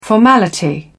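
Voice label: "formality", English, British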